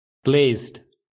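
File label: "placed", English; properties of objects new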